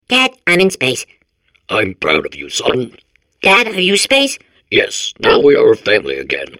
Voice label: babble; Portal 2